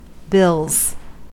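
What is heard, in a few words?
"bills", American English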